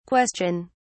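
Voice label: "question!", British English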